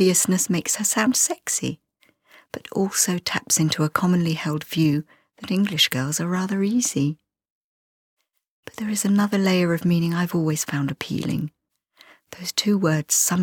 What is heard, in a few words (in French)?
PETITE ANGLAISE